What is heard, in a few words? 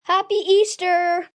Happy Easter, Occasions, 1 boy, 10 yrs.; Holiday Sound Effects